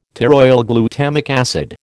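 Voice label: "pteroylglutamic acid", American English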